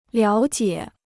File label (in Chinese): "了解", Chinese